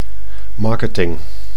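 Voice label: "marketing", Dutch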